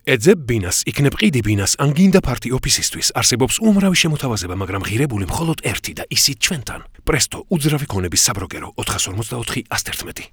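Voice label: georgian male commercial; voice demos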